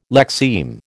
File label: "lexeme", American